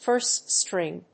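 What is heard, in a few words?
"first-string", English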